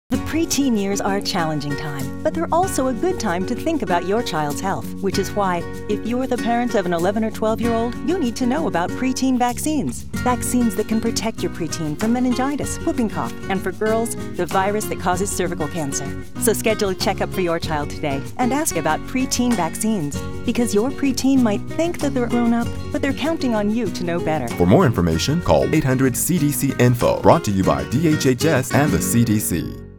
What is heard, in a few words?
Radio English